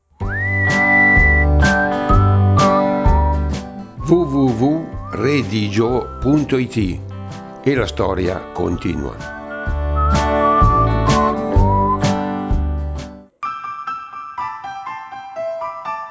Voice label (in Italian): dialetto scoglilingue